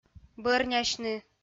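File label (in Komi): "бӧрнясьны", female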